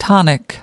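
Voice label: "tonic", US English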